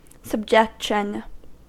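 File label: "subjection", US English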